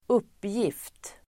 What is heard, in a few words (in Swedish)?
"uppgift", Swedish